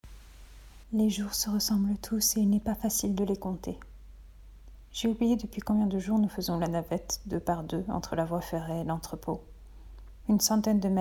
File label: French voice